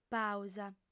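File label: "pàusa", female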